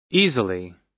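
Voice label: "easily", American English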